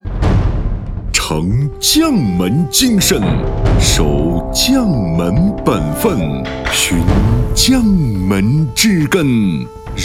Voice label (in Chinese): 广告 酒类 稳重, 男国; 将门酒